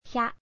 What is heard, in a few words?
"hya", kana